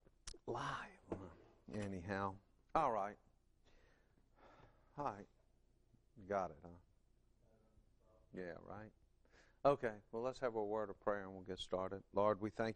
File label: English